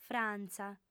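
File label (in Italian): "frantza", lingua sarda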